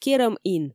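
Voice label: "керӧм ин", Komi, female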